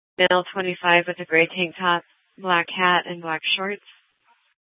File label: Superstition Dispatch, Mesa PD